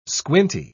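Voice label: "squinty", British English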